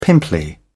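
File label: "pimply", British English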